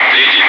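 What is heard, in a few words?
Russian bright; Wingman; DCS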